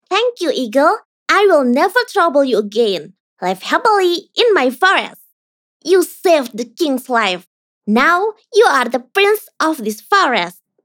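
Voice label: character English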